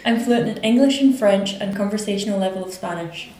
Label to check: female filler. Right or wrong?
Right